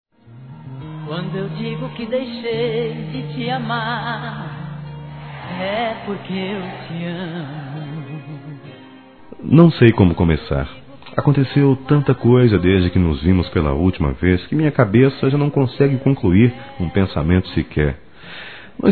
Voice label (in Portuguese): Paquera Masculino; Telemensagens; Conquistas Cantadas paqueras; Telemensagens 2017